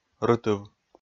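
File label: "рытыв", Komi, male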